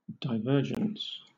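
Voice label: "divergence", British English; pronunciations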